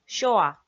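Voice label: "сёа", Komi, female; kpv audio dict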